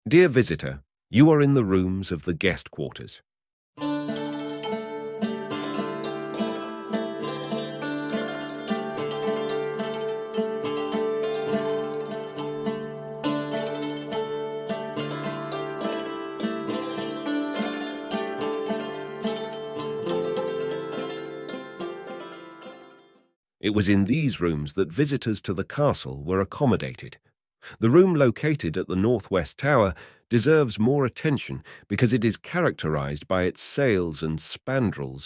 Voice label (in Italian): foresteria inglese